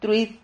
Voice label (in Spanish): "truid", Silabas